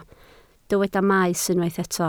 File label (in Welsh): "maes" Welsh